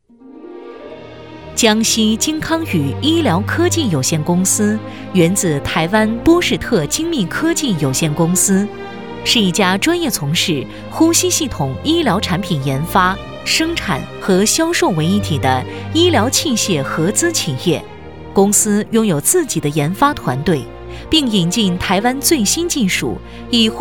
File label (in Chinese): 专题 医院 浑厚; 江西晶康宇